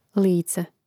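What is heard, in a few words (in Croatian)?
"lice" Croatian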